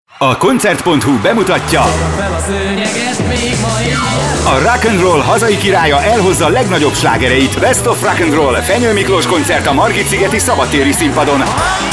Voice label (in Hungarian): radio spot